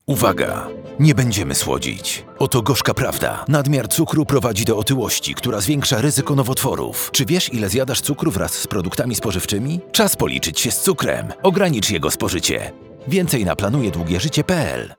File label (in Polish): Spot Radio; Cukier